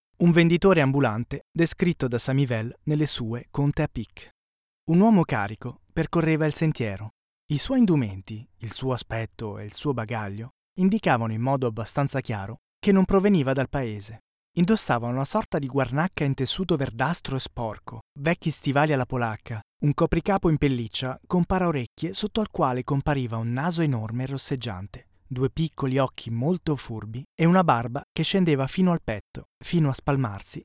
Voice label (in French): audioguide italien